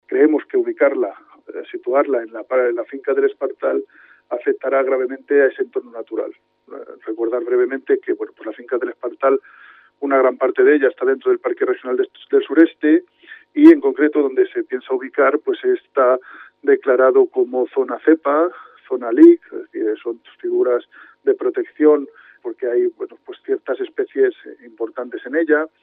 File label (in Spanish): noticias